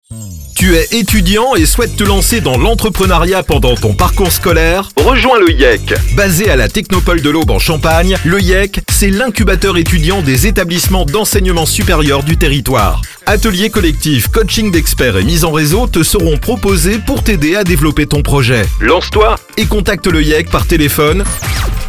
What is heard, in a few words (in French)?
Spot; YEC